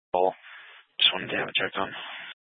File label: Police Dispatch, scanner; Police 1 Dispatch